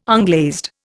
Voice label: "unglazed", Indian